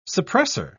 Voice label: "suppresser", en uk